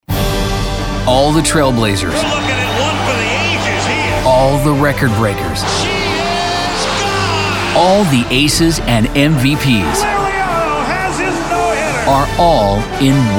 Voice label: Promo

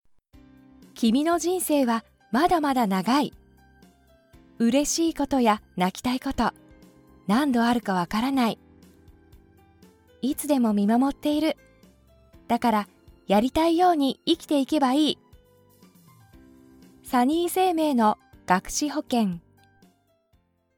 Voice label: commercial brightvoice

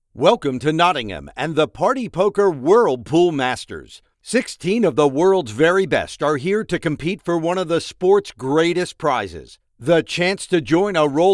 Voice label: Sports Announcer Program Voice

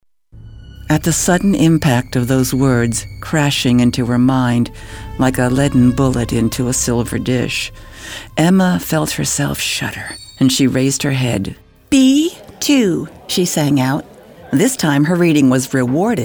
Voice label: audio book

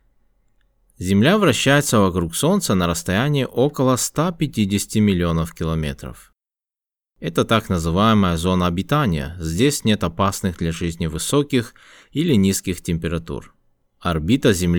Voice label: Russian; Earths Perfect Address

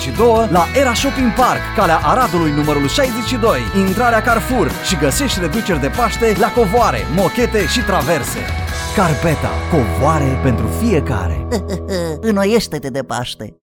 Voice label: Spot Oradea

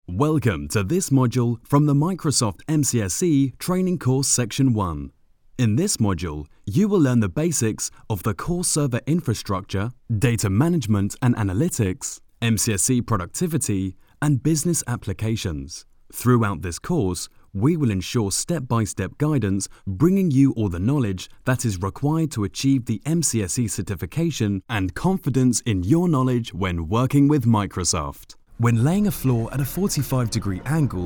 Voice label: E-LEARNING

